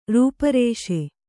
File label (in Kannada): "ರೂಪರೇಷೆ", kannada